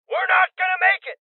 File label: Male Young